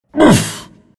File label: dragon knight pain